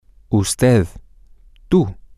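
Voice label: "you", spanish, male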